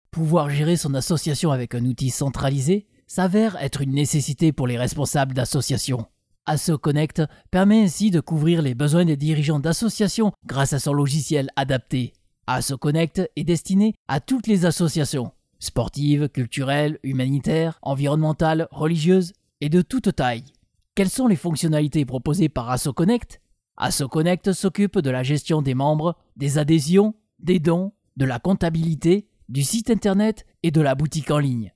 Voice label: French Male

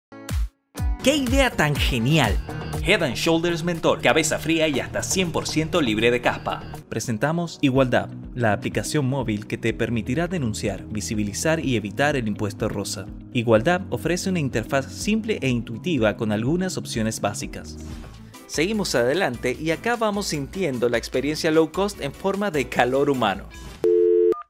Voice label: Spanish (LA), Male

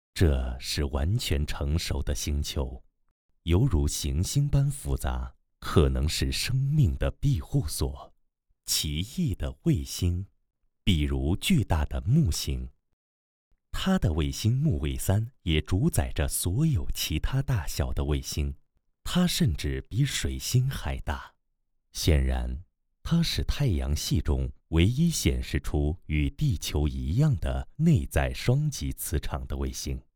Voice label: Chinese, Male

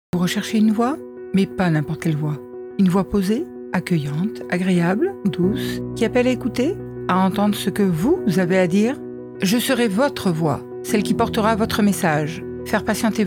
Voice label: French, Female

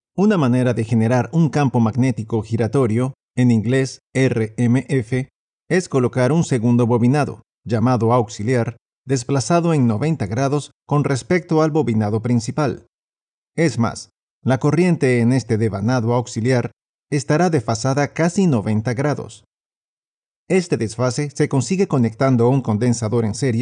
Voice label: Spanish (LA) Male

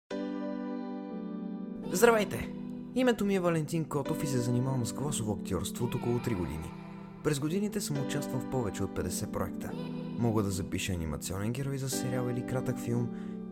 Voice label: Bulgarian, Male

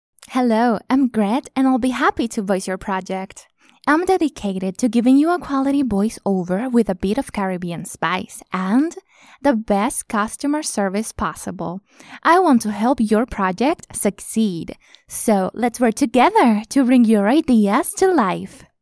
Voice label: English (US), Female

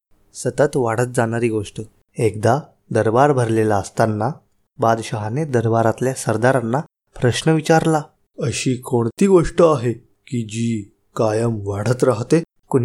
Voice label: Marathi, Male

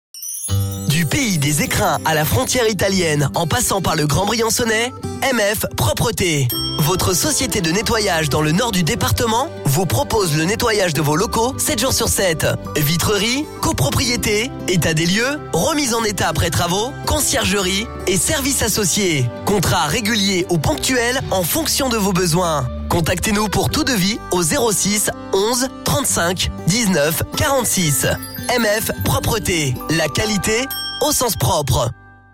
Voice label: French Male

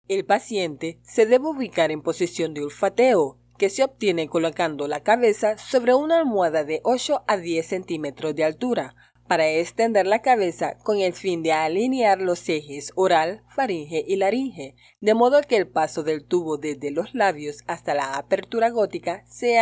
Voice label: Spanish (LA), Female

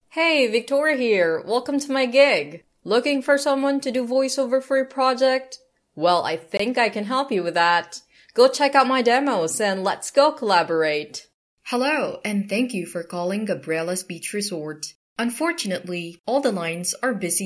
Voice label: English (Asian) Female

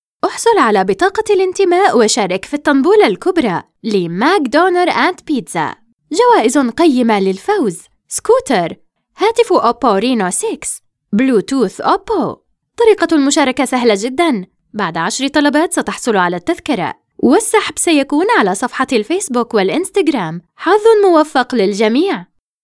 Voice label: Arabic, Female

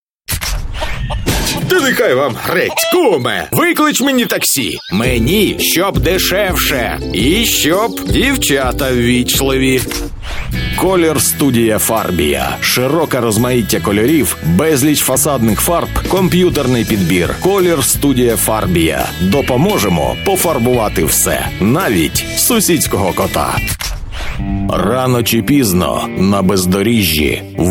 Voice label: Male, Ukrainian

